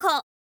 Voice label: "ko", info girl voice